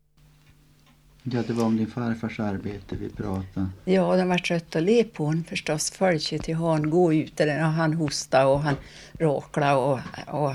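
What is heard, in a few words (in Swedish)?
Hille Gästrikland, Svenska sagor, dialekter; Folkminnen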